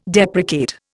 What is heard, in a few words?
"deprecate", Indian